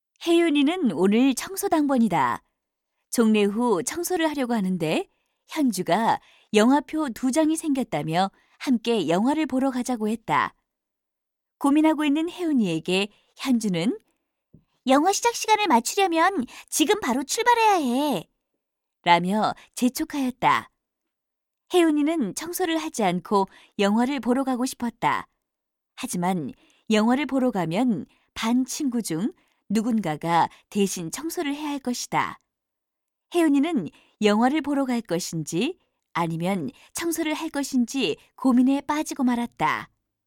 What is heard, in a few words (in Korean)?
내레이션; 도덕